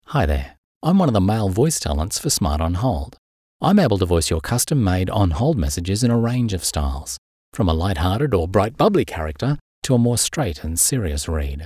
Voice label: MALE; Voice Over artists; Smart On Hold Messages